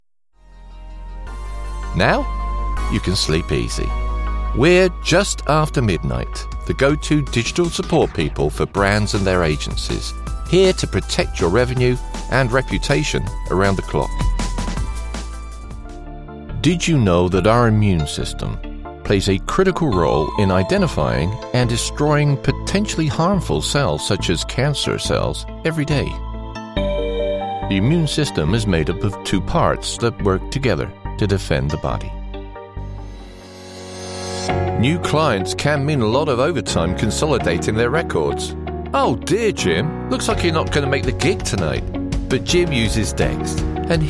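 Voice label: Explainer, voiceover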